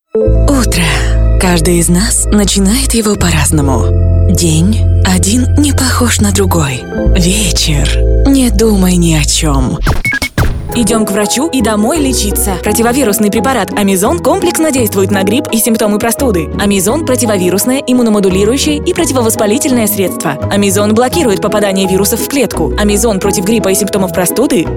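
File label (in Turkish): Ukraynaca Kadin, Ukrainian female voice; ukraynaca seslendirme; kadin sesler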